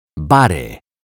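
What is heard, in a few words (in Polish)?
"bary", Polish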